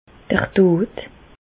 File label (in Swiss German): "dod", Alsace, Bas Rhin; medecine; mort la